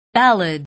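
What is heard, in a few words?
"ballad", English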